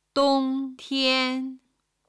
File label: "dongtian", Chinese